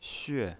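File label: "xue", pinyin voice, fourth tone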